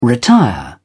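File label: "retire", British English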